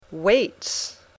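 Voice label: "weights", English; Sports/Hobbies (Deportes/Pasatiempos)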